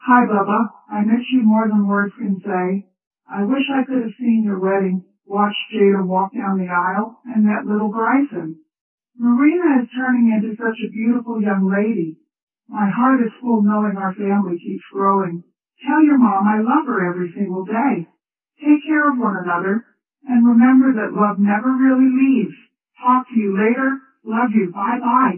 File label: deeper voice